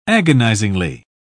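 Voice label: "agonisingly", British English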